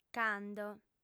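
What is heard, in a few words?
"cando", female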